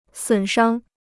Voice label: "损伤", Chinese